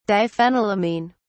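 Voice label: "diphenylamine", British English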